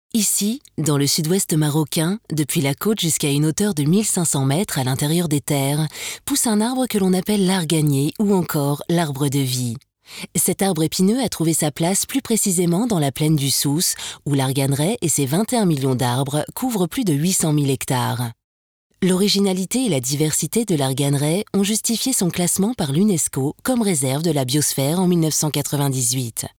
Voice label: Docu; Arganier